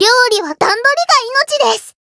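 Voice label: variable chant, Japanese; loveli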